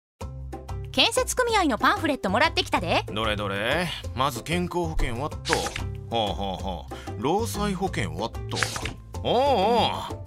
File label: radio; osaka